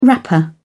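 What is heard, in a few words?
"wrapper", English, British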